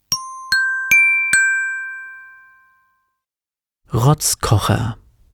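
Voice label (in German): "Rotzkocher", German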